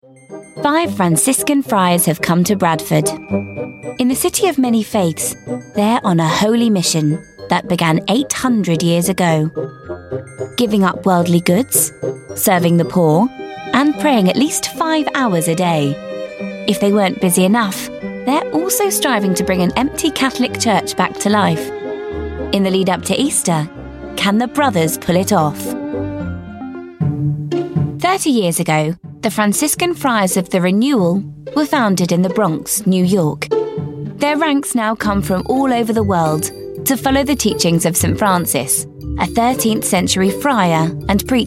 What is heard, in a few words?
documentary